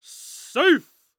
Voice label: Sports Human Voice Male Young Man Baseball Umpire "Safe"